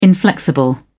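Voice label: "inflexible", British English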